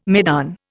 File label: "maidan", Indian